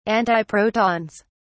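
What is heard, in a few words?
"antiprotons", British English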